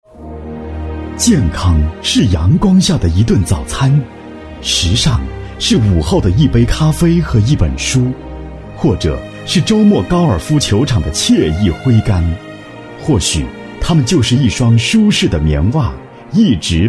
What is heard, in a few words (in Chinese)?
专题 企业 浑厚; 健盛集团